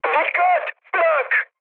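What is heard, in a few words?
damaged aa, mood high, Turkish; speech; War Thunder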